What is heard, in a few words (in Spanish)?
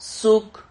"suc", Silabas